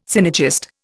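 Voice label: "synergist", Indian English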